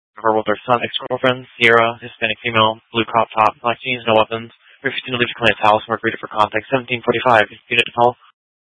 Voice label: Phx PD Dispatch, scanner